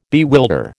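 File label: "bewilder", American English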